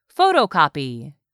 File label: "photocopy", English; 3000 words